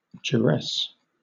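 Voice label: "duress", British English; pronunciation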